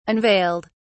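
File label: "unveiled", English, British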